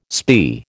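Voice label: "spae", American English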